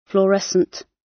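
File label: "fluorescent", British English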